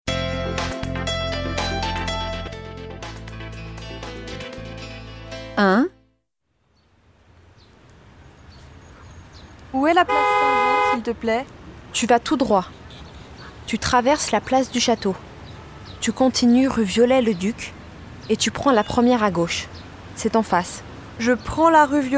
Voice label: French